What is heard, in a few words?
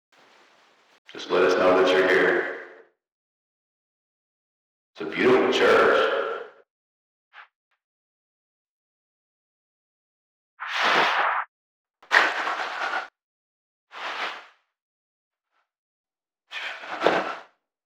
Other language EVP hum and hiss removed double volume